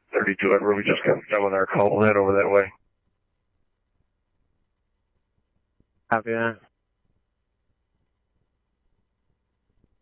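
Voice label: scanner, Phoenix Police Department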